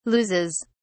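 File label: "loses", British English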